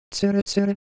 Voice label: Japanese, ideophones; Texture